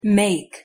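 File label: "make", English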